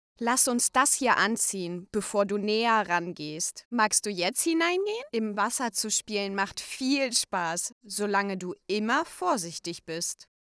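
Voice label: german female narration; voice demos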